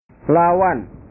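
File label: "lawan", Indonesian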